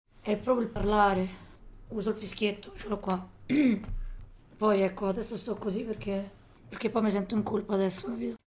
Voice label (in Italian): disfonia; voce